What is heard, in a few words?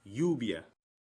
"lluvia", Espagnol